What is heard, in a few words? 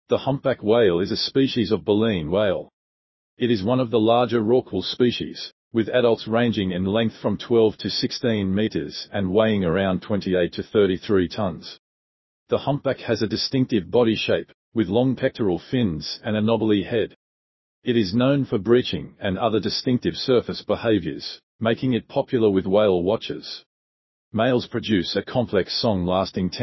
documentary example